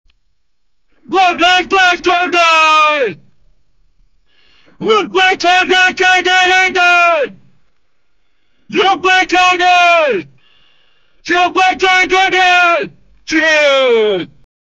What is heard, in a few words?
a old man yelling; humor